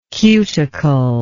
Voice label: "cuticular", english